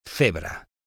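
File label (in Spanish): "cebra", español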